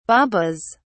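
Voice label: "babas", British English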